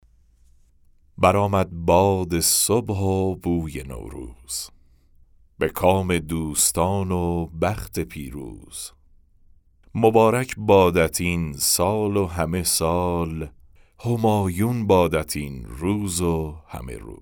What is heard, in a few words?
Narration; nowruz